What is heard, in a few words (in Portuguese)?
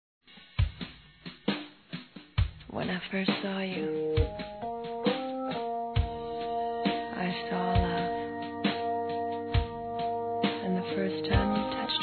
FORMATURA PARA AMIGA, fem; Telemensagens 2017